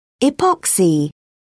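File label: "epoxy", British English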